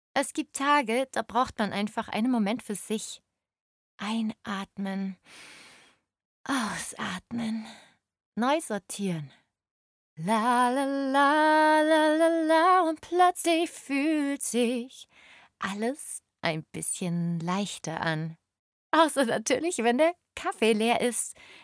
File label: Advertising, female voices